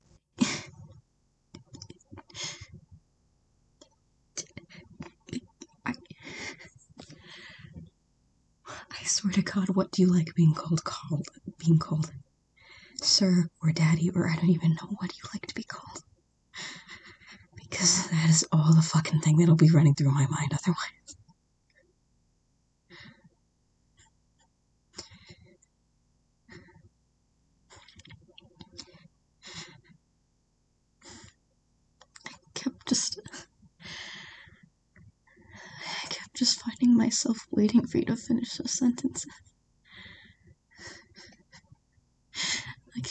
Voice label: Begging

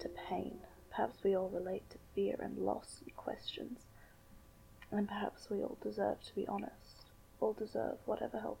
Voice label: We Are Stories Still Going poetry uplifting

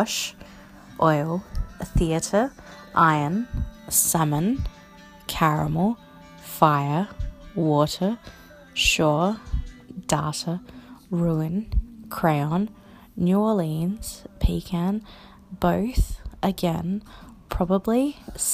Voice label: Accent Tag

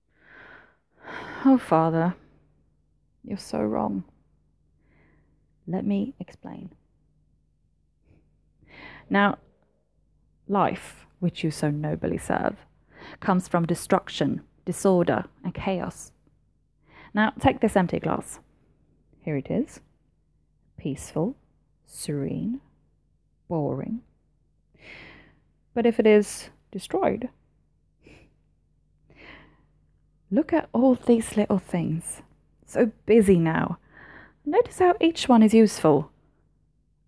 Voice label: A british-ish

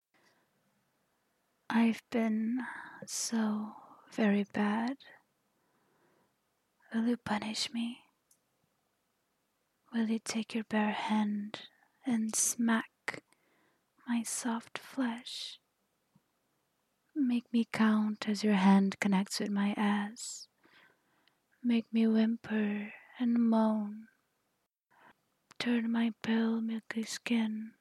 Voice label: begging; FemSub

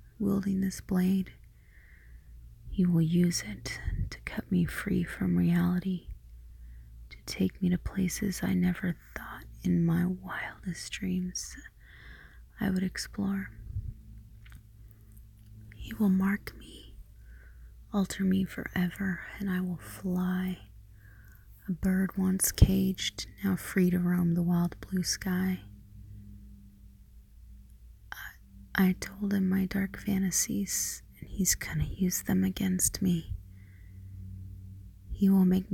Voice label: Erotic poetry anticipation; F4M; Dark Secrets; metaphorical knife play

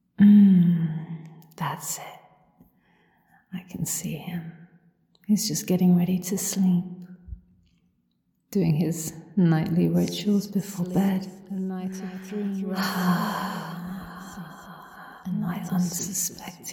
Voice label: Laughter, Echo, SFX; The Night Nymph; abduction; surrender; submit; Villain; light; Mindfuck; Fire; Induction; Mind blank

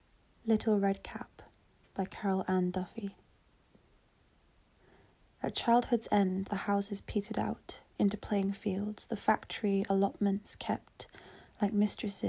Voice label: [F] [Poetry][English Accent]; Little Red Cap - Carol Ann Duffy

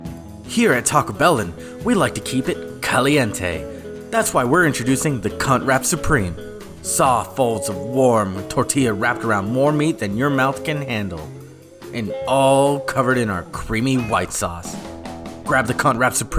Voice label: commercial; Taco Belland commercial

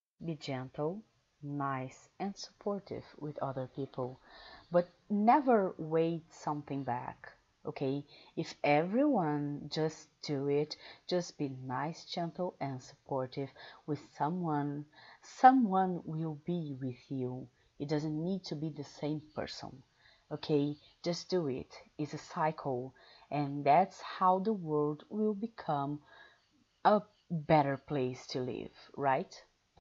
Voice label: 30 seconds speech, Advice, Brazilian accent, F4A

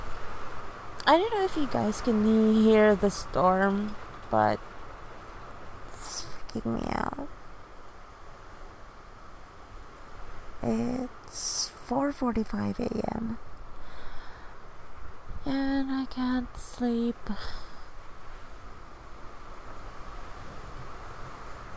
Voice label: F4A, Rainy Day Rambles, Half awake, Stormy sounds

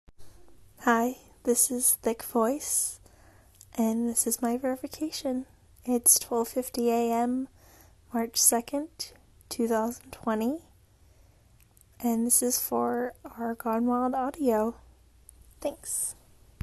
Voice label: F, Verification

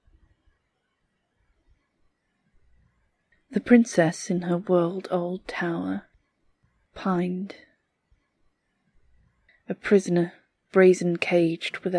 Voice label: Female voices; Fear of change; Feminism; The Anti-Suffragist by Eva Gore-Booth; National Poetry Day 2017; Personal Reality

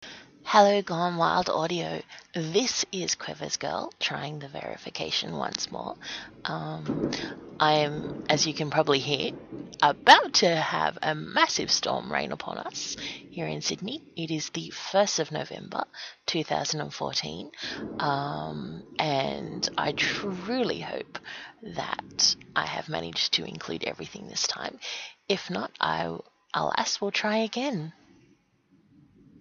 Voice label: F, verify

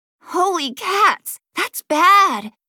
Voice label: AI Uhoh; Li Li; Heroes of the Storm; warcraft

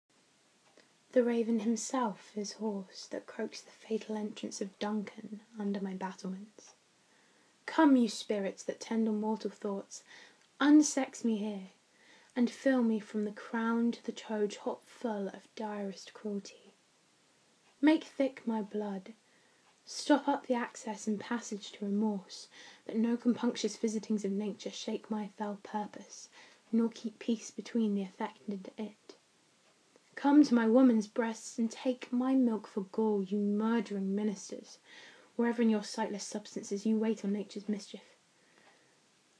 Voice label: Macbeth monologue

